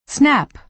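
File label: "snap", American English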